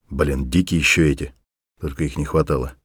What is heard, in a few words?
mutter, voice; Escape from Tarkov